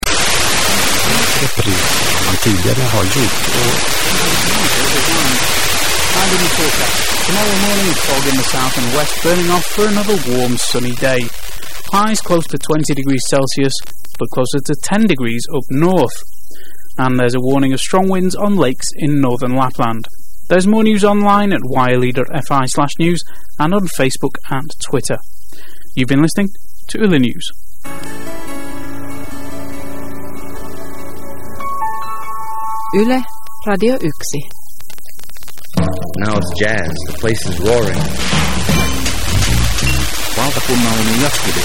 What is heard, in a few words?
finland english